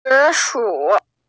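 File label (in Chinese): "zi3shu3", Mandarin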